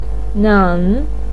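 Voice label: "nen", Mandarin Chinese third tone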